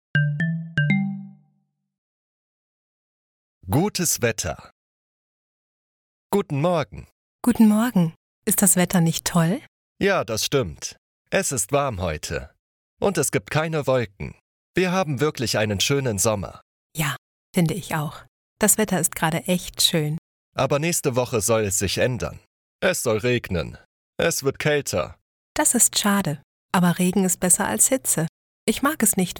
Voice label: German Small Talk Normal